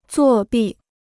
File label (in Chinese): "作弊", Chinese